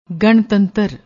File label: "ganntantr", Punjabi; ਗਣਤੰਤਰ